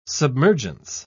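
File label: "submergence", British English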